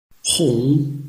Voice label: "hong2", Chinese